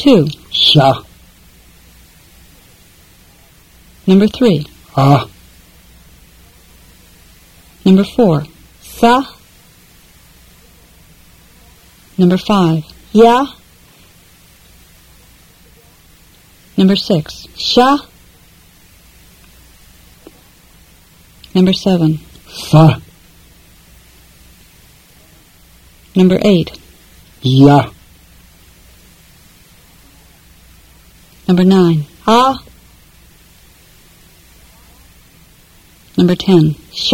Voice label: Fluent Tibetan drill